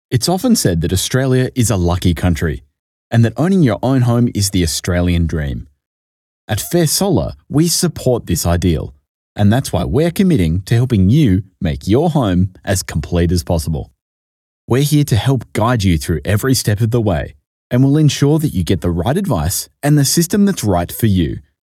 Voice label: AUS Male; Studio Nonagram